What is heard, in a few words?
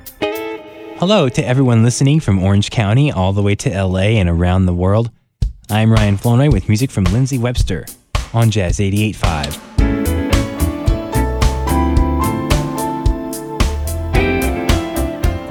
Commercial Radio Hosting; KSBR